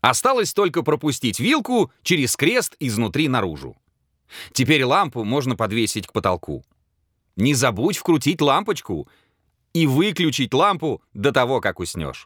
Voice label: narr